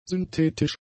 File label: "synthetisch", German; adjectives